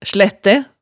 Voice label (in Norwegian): "slættæ", hemsedalsmaalet